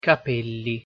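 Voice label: "capelli", Italian pronunciation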